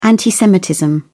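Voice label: "anti-semitism", English, British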